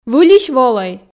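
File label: "выльысь волӧй", Komi, female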